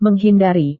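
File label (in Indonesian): "menghindari", Indonesian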